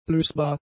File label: "lösbar", German; adjectives